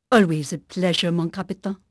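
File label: French female; Pirates Of The Caribbean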